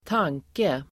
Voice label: "tanke", Swedish

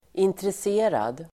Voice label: "intresserad", Swedish

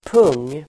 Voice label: "pung", Swedish

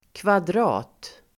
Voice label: "kvadrat", Swedish

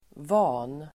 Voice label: "van", Swedish

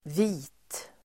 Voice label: "vit", Swedish

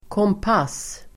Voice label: "kompass", Swedish

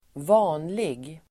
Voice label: "vanlig", Swedish

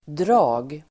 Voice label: "drag", Swedish

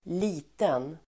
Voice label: "liten", Swedish

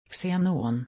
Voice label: "xenon", Swedish

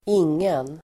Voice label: "ingen", Swedish

